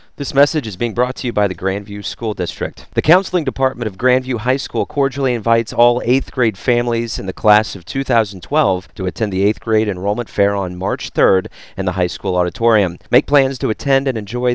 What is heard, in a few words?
counseling 8th grader message